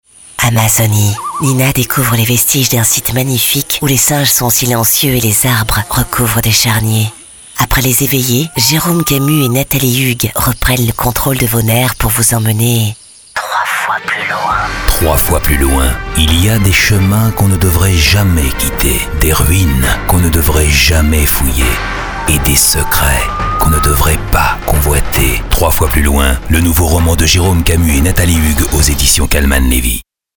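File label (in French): spot, spots radio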